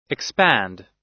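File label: "expand", US English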